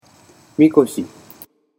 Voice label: "mikoshi", Japanese